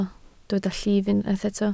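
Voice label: "llif" Welsh, spoken word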